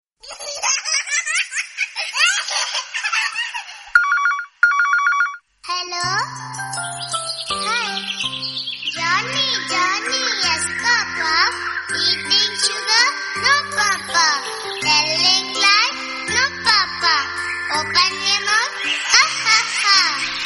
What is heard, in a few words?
cute girl